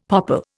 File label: "popple", Indian; Dictionary